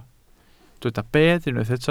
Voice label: "bedd", Welsh